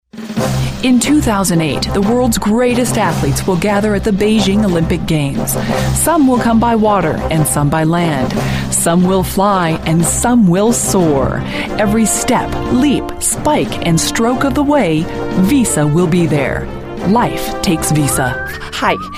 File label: Commercial, Female voices